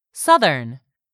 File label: "southern", English; 3000 words